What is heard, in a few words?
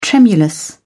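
"tremulous", British English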